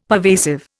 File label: "pervasive", Indian; Dictionary